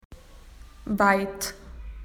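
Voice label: "wide", Australian English; PictureDictionary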